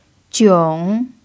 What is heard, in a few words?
"jiong3", chinese